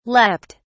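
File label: "leaped", English, British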